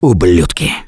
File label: reaction negative, russian; Gambler